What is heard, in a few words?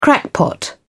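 "crackpot", British English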